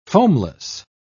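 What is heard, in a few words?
"foamless", British English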